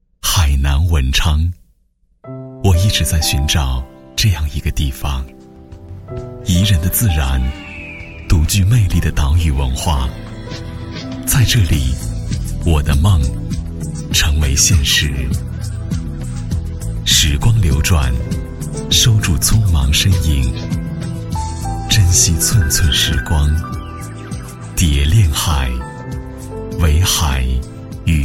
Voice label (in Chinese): 男3-房产广告-唯美高端房产, 国语男声配音; 蝶恋海